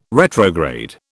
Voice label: "retrograde", British

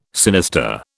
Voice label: "sinister", British

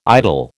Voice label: "idle", American

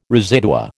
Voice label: "residua", American

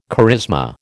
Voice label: "charisma", American

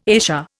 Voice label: "ESA", Indian

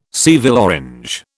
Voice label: "Seville orange", British

